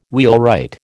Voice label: "wheelwright", American

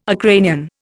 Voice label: "Ukrainian", Indian

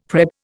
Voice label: "prep", Indian; Dictionary

